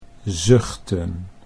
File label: "zuchten", Dutch